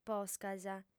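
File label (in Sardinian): "poscas", female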